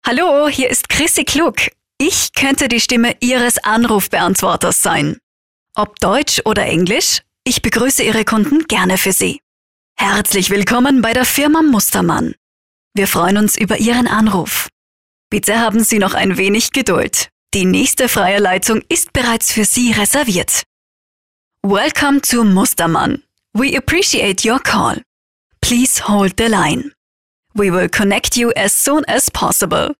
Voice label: Telefonansagen

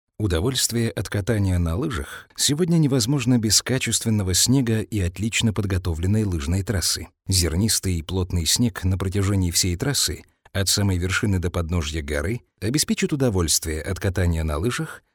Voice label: Sprecher Native speaker

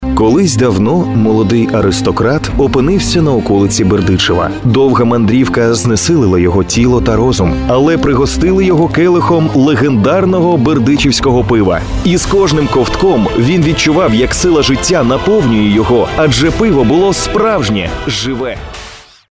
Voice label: Sprecher Native speaker

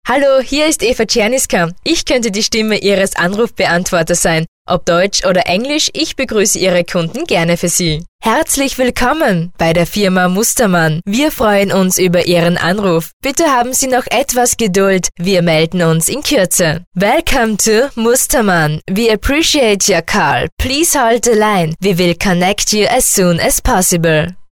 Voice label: Sprecher Telefonansagen

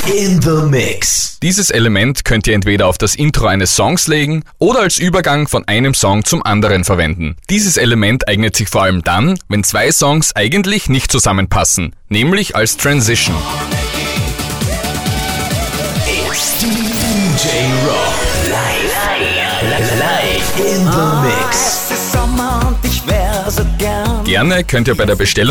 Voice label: Jingles DJ Jingle Sounder

